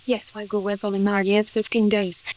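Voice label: voice acting, es, female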